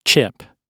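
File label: "chip", British English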